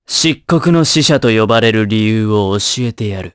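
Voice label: Vox Skill Japanese; kingsraid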